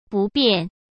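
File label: "不便", Mandarin Chinese; hsk; chinese-flashcards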